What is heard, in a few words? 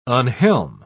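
"unhelm", British English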